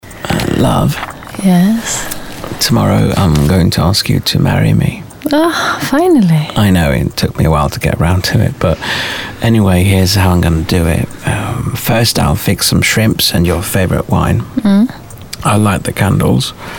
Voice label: Radio commercial English